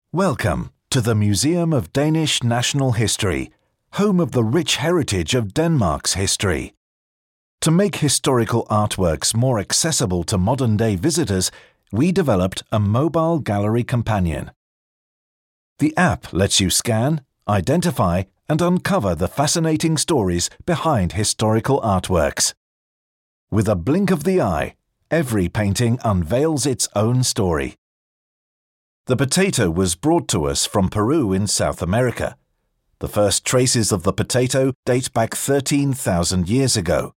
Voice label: tour audio guide showreel